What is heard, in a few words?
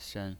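"shen", Mandarin Chinese pinyin, neutral tone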